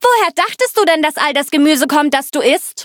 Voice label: loading, de; Cookie Run